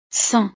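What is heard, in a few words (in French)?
"cent", French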